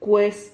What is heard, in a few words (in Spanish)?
"cues", Silabas